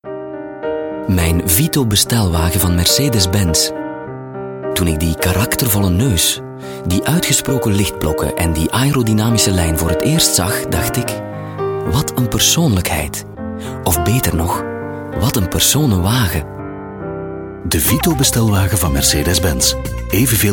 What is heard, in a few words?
Mercedes Commercial